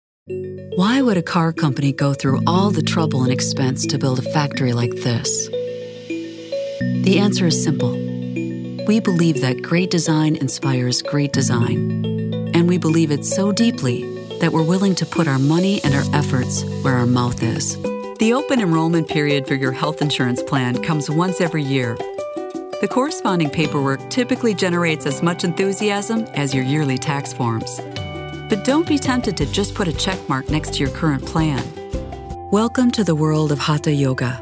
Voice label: Marketing and eLearning